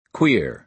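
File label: "queer", American English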